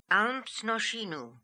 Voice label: "Almznoshinoo", Beelzebub pronounciations; Fourth Way